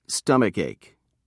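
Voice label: "stomachache", English, British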